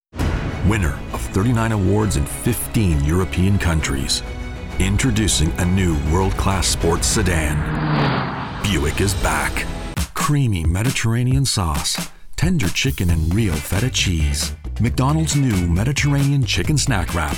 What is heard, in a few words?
Commercial